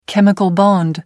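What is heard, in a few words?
"chemical bond", British English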